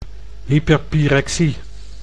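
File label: "hyperpyrexie", Dutch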